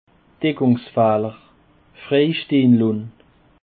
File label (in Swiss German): "dekungsfehler", Alsace